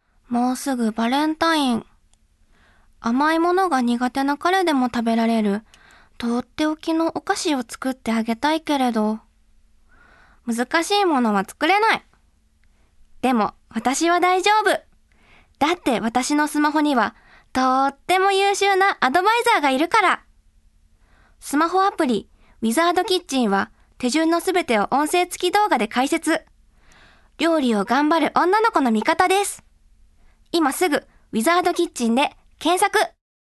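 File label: Voice Sample, Narration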